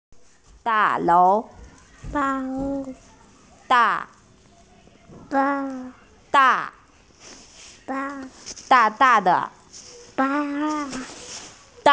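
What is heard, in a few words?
"da4lou2", Mandarin Chinese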